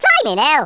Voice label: "fireball", Brummie; Worms Armageddon